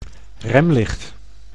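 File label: "remlicht", Dutch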